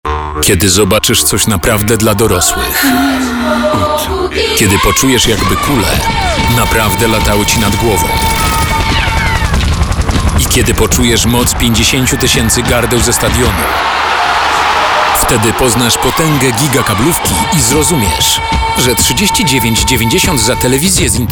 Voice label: radio; netia wolnosc